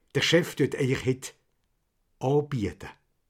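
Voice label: chef anbiete, Haut Rhin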